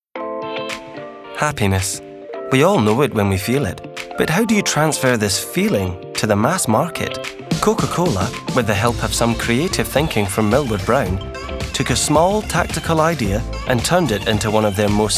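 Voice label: male english scottish accent corporate; coca cola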